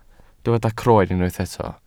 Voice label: "croen", welsh

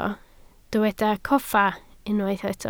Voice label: "coffa", Welsh

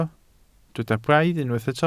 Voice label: "braidd", Welsh

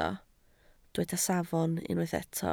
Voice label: "safon", Welsh

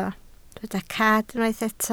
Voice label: "cad", Welsh

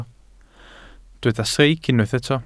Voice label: "streic", welsh

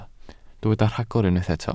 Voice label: "rhagor", Welsh

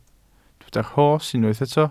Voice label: "rhos", welsh

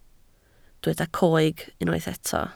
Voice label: "coeg", Welsh

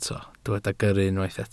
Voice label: "gyrru", Welsh

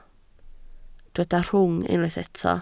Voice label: "rhwng", Welsh

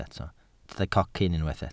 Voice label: "cocyn", welsh

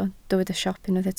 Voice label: "siop", Welsh

